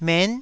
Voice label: "men9", Taiwanese syllable